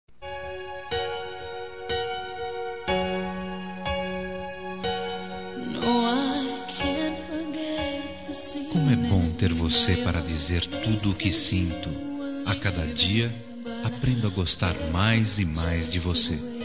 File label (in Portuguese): ROMANTICA MASC